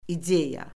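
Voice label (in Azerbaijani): "ideya", Azerbaijani; Orfoqrafiya Lüğəti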